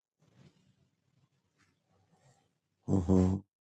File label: voice message